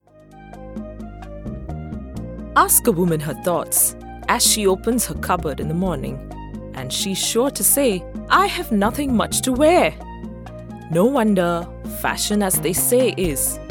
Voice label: corporate english; liva